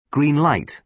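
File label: "green light", British English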